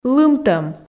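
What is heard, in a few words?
"лымтӧм", Komi, female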